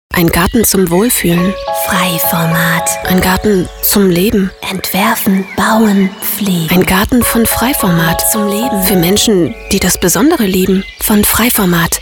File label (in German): radio spot; freiformat garten und landschaftsbau